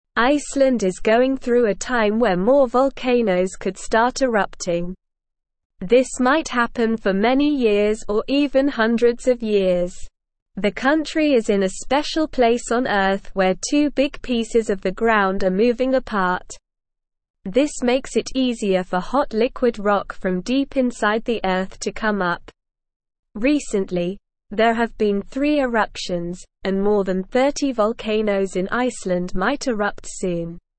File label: English Lower Intermediate SLOW Reading; Icelands Volcanoes Waking Up A Big Mystery